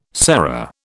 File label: "sera", British